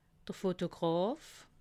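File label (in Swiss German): "fotograf", Alsatian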